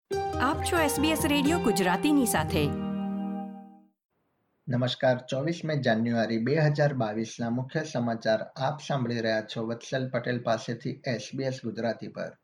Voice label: gujarati news bulletin; sbs

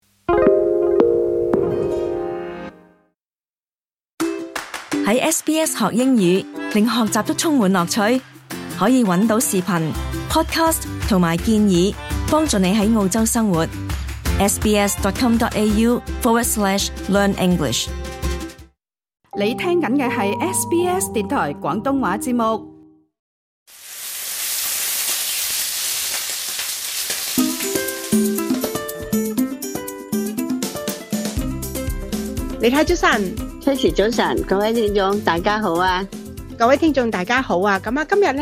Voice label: cantonese; gourmet express

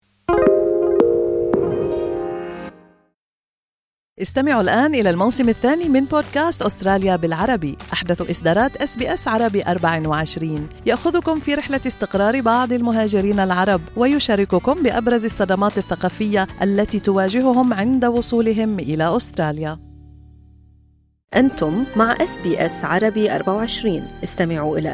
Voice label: arabic, newsflash

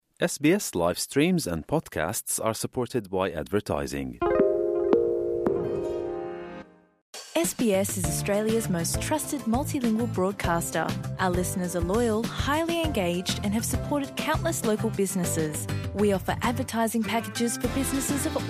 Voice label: dinka news; sbs

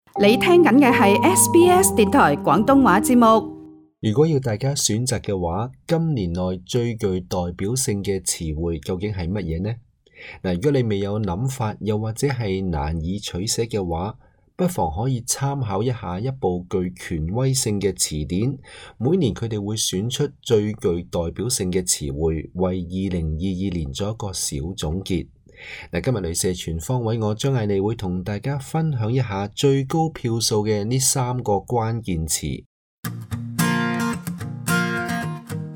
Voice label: cantonese; sbs; laser360 word of year

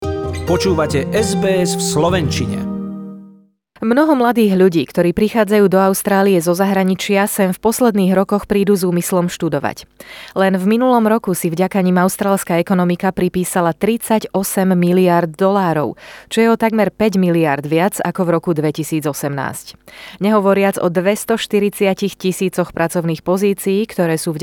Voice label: slovak; sbs

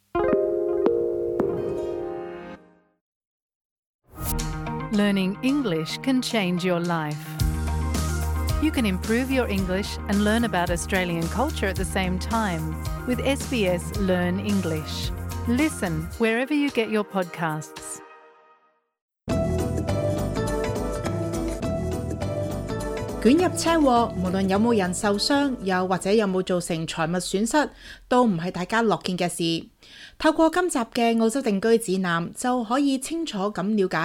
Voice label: cantonese settlement guide car crash; ao zhou ding ju zhi nan

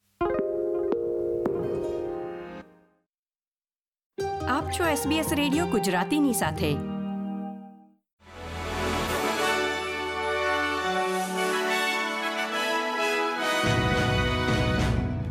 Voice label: gujarati news bulletin; sbs

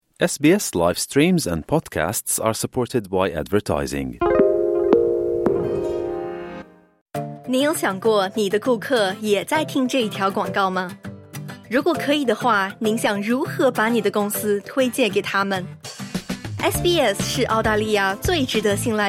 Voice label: mandarin news flash; sbs

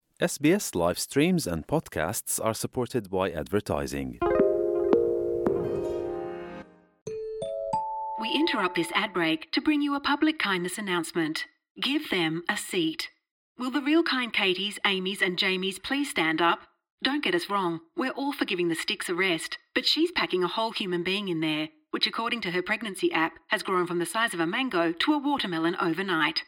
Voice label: gujarati news bulletin; sbs

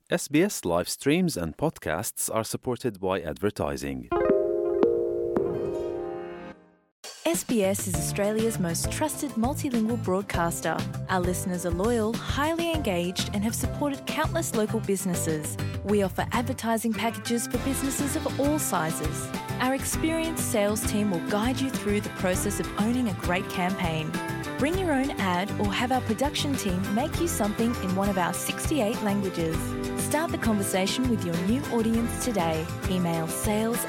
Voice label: gujarati news bulletin; sbs

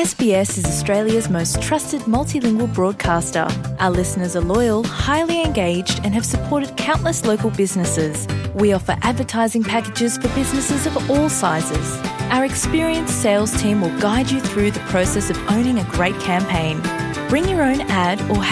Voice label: dinka, podcast; sbs

